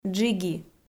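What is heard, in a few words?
"джиги", Komi, female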